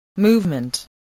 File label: "movement", voice, spelling